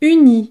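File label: "unis", French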